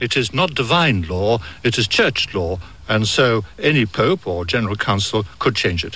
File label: British RP, dialect